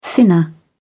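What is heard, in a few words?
"thinner", British English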